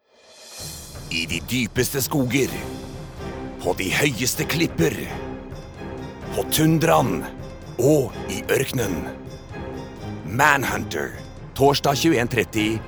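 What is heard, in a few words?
Norwegian dramatic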